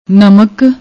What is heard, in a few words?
"namak", Punjabi; ਨਮਕ